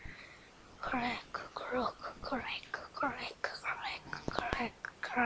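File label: "clock", spoken word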